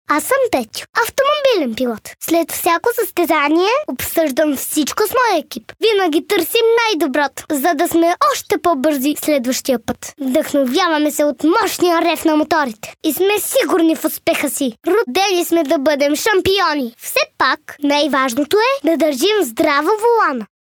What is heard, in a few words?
bulgarian boy; dictor